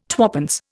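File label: "twopence", Indian English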